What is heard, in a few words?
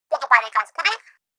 Voice Strict Words; Splatoon; Callie